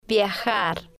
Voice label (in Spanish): "viajar", spanish